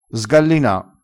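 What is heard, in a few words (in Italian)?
"sgallina", vocabolario dialettale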